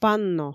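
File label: "панно", Komi, female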